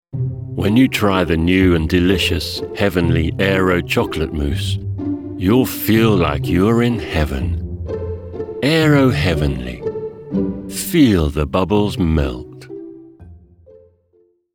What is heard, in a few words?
ad